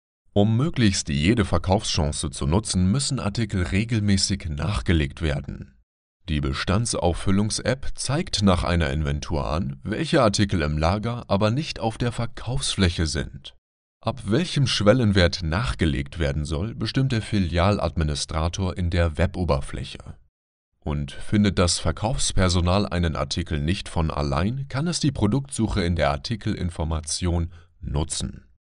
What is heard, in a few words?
german male